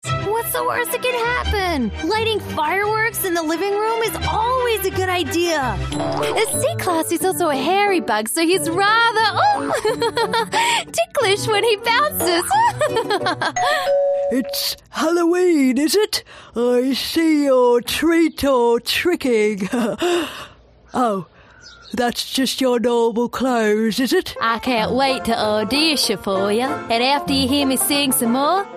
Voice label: english american animation